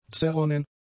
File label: "zerronnen", German; adjectives